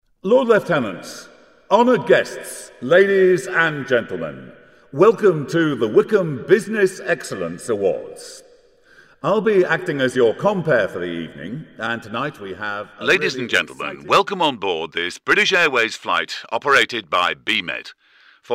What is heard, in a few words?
Voice of God